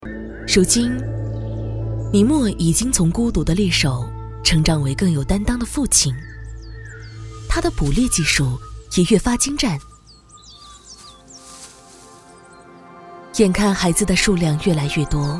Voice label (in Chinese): 记录旁白讲述纪录片; 猫头鹰